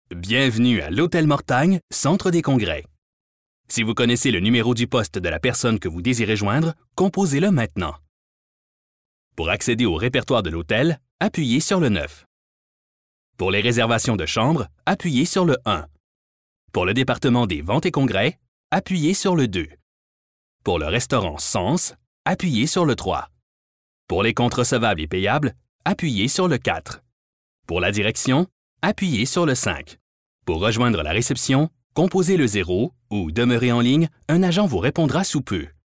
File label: ivr hotel; hotel mortagne